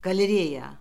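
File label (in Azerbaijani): "qalereya", Azerbaijani; Orfoqrafiya Lüğəti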